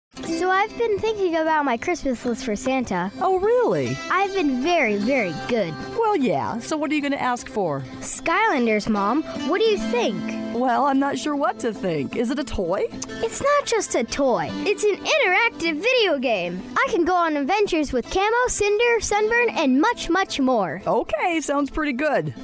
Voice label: Child Voice Female, Girl, aged 15, Commercial Voiceover, TV and Radio, Character